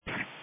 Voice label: Police Dispatch